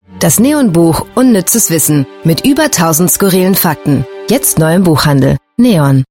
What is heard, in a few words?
German